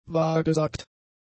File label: "wahrgesagt", German; adjectives